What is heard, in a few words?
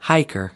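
"hiker", American English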